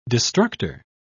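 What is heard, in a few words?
"destructor", British English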